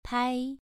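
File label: "pai", tone 2, pronunciation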